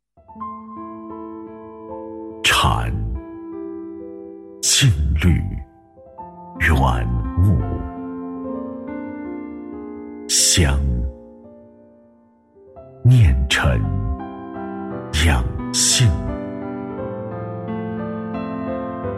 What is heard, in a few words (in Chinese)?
男 广告, 国语男声配音; 黑茶品牌宣传; 央视大气专题